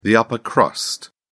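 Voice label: "upper crust", British English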